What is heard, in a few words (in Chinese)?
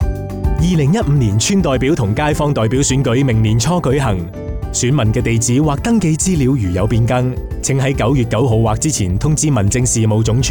Cantonese; 電台宣傳聲帶; 更改選民登記資料; 2015 年街坊代表選舉